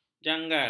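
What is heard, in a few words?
"janggal", Malay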